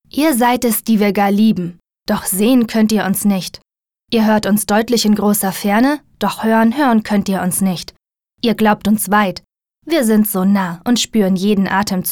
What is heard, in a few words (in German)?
sachlich, frauen